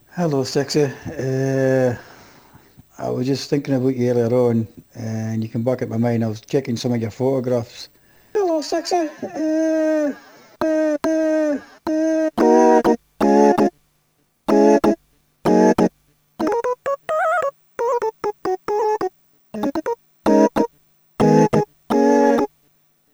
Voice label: dirty Scottish voicemail; Crowdsourced Sample Pack; Crowdsourced Live Stems; MoreNight flips a dirty Scottish voicemail